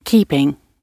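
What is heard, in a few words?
"keeping", British English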